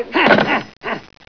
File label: monk growl